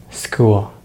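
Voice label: "school", American English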